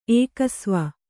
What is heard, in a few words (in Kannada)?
"ಏಕಸ್ವ", Kannada